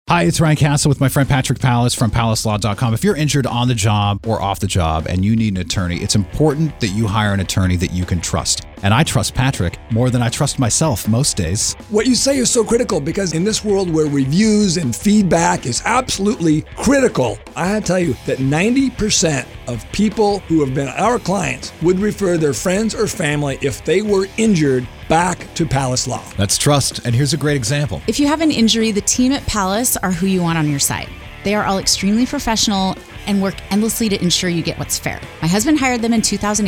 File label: testimonial female